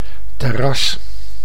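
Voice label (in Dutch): "terras", Dutch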